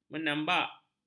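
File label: "menambak", Malay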